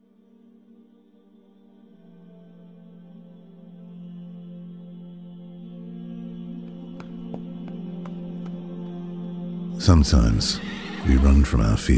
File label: Story teller